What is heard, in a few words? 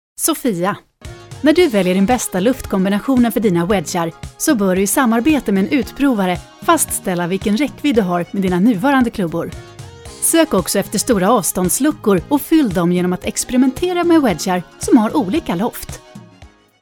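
Swedish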